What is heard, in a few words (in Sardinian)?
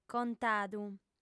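"contadu", female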